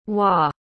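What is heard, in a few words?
"wah", spoken word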